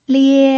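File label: "lie", Mandarin Chinese pinyin, tone 1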